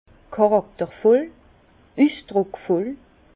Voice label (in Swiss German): "charakter voll", Bas Rhin; vin; typé